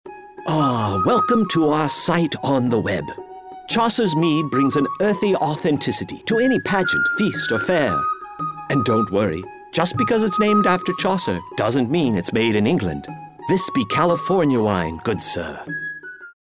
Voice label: Character Voice